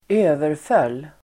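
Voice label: "överföll", Swedish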